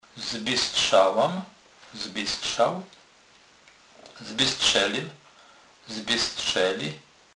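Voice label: "zbystrzec", Polish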